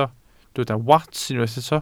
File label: "wats", Welsh